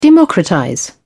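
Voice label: "democratize", British English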